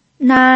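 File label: "nai", pinyin, first tone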